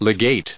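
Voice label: "legating", US English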